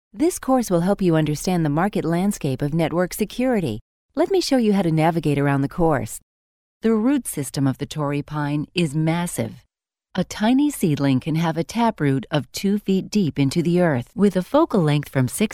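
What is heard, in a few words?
English American, female, voice over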